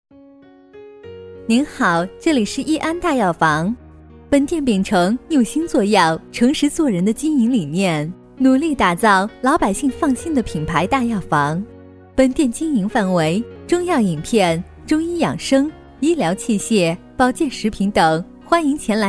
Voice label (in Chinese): 女 彩铃 亲和 舒缓 大药房; 益安大药房